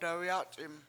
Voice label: "dawyAc71m" smoke, Washo